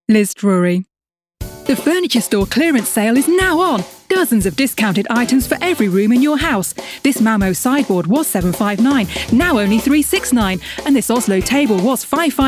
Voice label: commercial voice